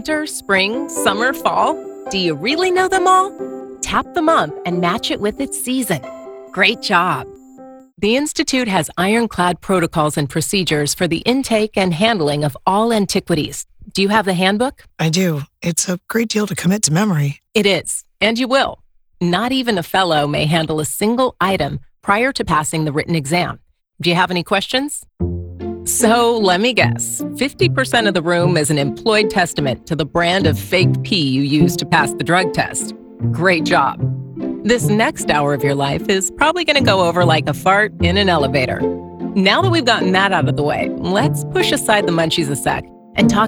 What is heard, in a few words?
E LEARNING